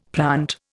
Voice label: "plant", Indian; Dictionary

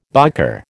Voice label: "biker", American

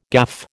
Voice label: "gaffe", American

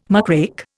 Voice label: "muckrake", Indian

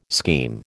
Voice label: "scheme", American

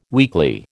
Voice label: "weekly", American

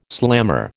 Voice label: "slammer", American

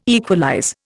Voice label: "equalize", Indian

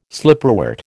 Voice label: "slipperwort", American

